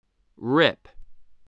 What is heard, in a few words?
"rip", English spelling